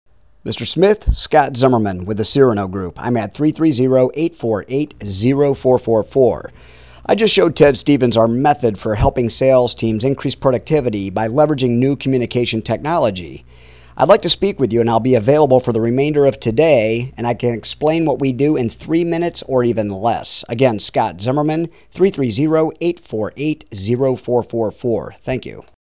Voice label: Voicemail; Director